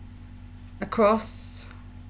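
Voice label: "across", British, female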